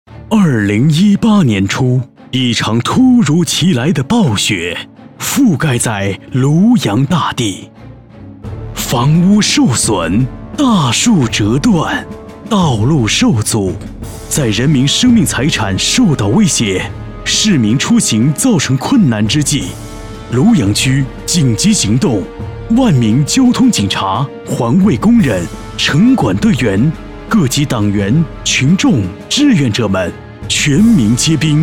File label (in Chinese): 男 专题 画外加音乐